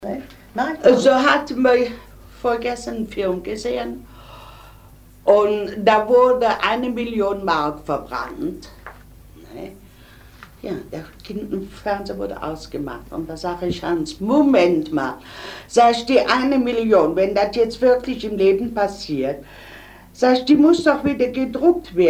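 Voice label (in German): sotzheim umgangssprache, regiolekt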